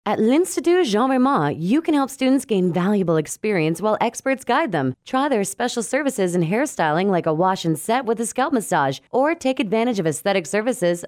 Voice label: hardsell